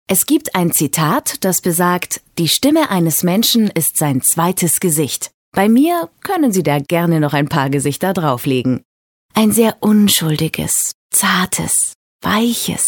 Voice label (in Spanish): Femenino; Sonoarte